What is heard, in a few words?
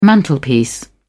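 "mantelpiece", English, British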